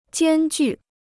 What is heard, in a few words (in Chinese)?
"艰巨", Chinese